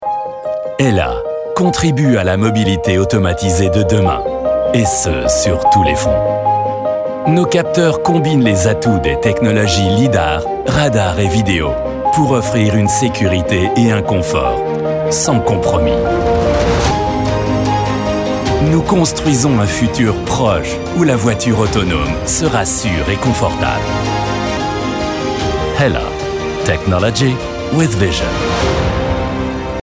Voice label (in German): imagefilm